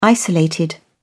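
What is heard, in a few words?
"isolated", British English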